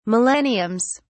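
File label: "millenniums", British English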